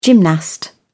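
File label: "gymnast", British English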